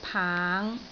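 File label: "paang5", Cantonese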